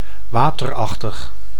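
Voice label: "waterachtig", Dutch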